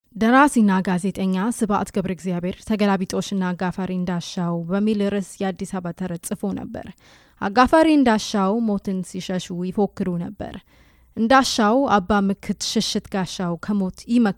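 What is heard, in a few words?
Amharic General, voice demos; GoLocalise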